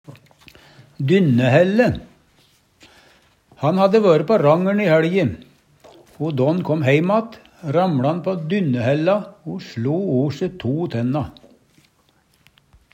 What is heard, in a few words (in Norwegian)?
"dynnehelle", Numedalsmål